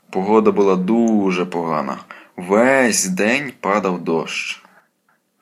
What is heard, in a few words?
dialogue, Ukrainian